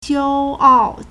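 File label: "骄傲 jiāoào", Chinese; adjective; proud; arrogant; conceited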